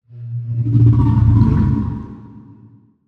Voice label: cosmic elf voice